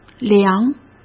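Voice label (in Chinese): "良", Mandarin Chinese; hsk; chinese-flashcards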